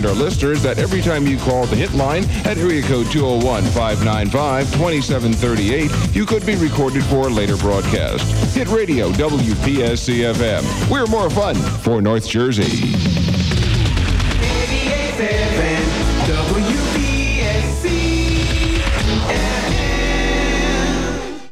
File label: PROMO Legal Disclaimer